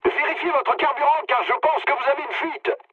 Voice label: tank damaged mood med, speech fr; War Thunder